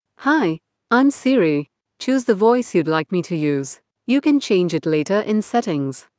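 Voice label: Indian English; iOS 26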